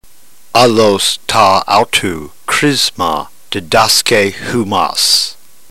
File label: drill, ntgreek